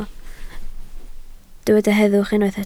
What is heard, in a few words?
"heddwch", Welsh